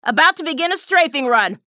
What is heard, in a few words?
chatter neutral; athena